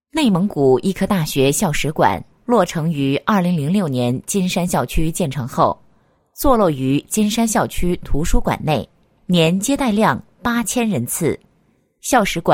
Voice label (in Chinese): 专题 校史馆, 国语女声配音, 磁性女配; 内蒙古医科大学校史馆